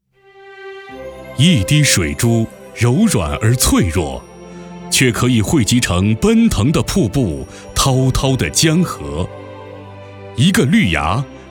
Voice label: 男国 宣传片 城市 稳重; 丹东

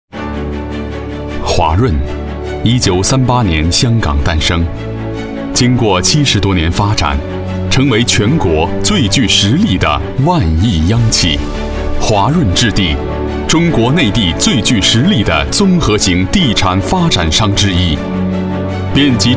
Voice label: 男国 专题 企业 浑厚; 华润国际

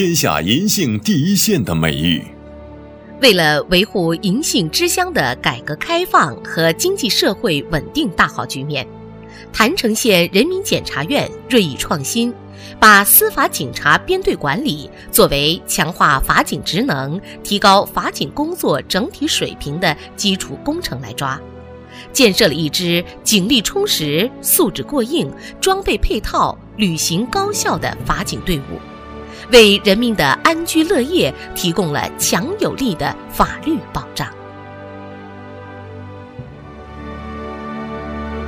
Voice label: 对播 政府 男女混; 政府-郯城男女混

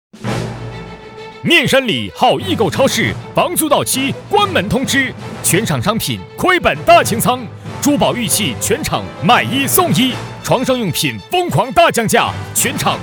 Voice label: 广告 促销 激情甩卖, 男国

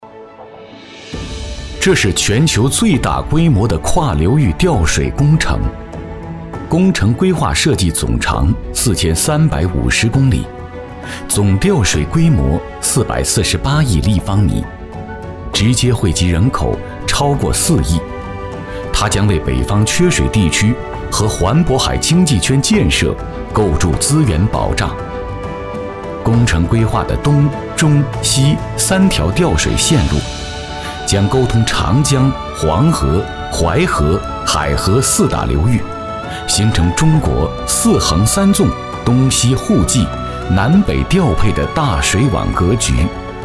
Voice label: 纪录片 自然科教 纪录片, 男; 世纪工程纪录片; 南水北调

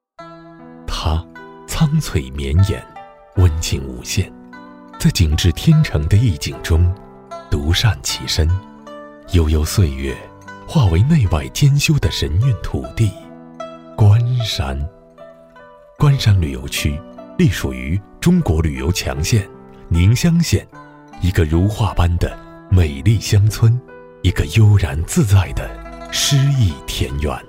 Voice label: 男国 宣传片 旅游 沉稳; 关山旅游区

